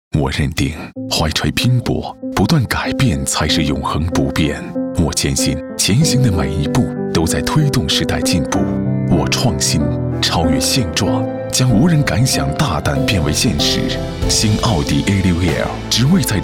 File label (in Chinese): 国语男 电台 广告; 奥迪